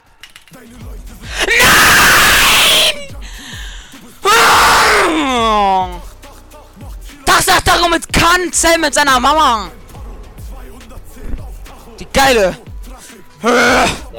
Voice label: rage

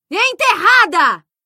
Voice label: skill portuguese

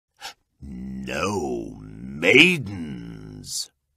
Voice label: announcer no maidens; halo

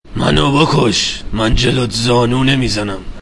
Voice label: plead

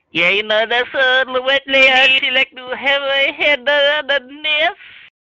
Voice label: asian voice

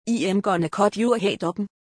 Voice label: im gonna cut your head open, danish accent

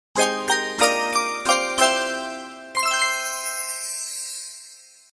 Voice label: cute japanese

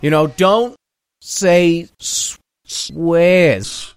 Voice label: jeers; scout

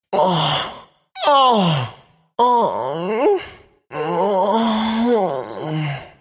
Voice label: taric japanese voice

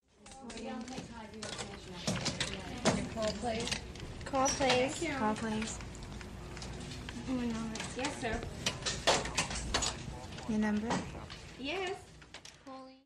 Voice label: phone operators

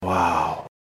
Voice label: whisper wow

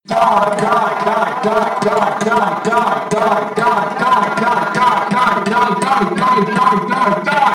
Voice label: robotic boy voice die

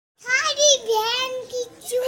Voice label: kid voice

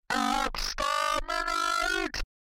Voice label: exterminate low

